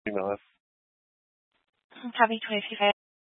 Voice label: Police Department Dispatch; Grand Junction Police Department Dispatch

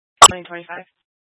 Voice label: Fire Dispatch

